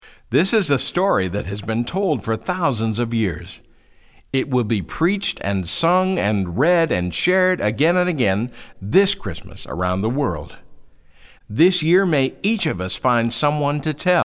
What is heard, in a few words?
narration